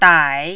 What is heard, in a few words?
"dai3", Mandarin